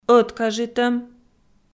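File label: "ӧткажитӧм" Komi, female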